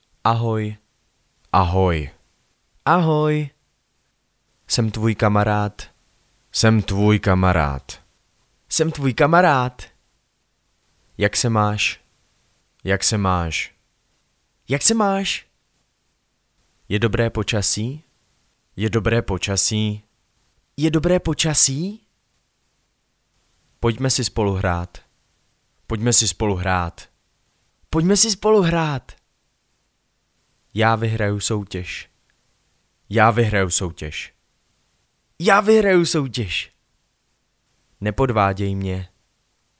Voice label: vox cz man